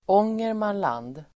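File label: "Ångermanland", Swedish; Swedish pronunciation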